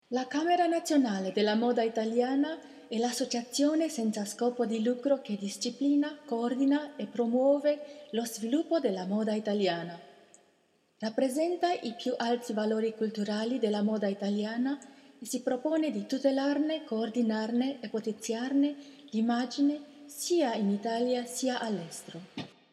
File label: Italian; Europe